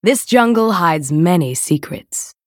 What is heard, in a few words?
Female Night Elf Play